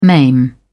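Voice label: "maim", British English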